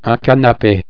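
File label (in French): "canape", French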